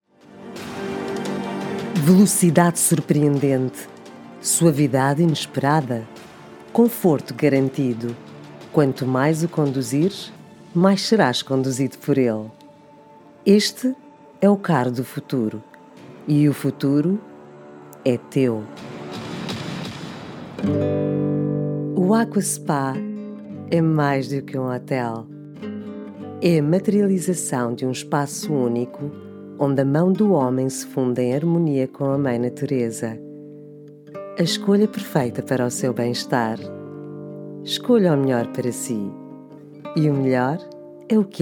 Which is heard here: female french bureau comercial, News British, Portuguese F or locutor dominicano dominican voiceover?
Portuguese F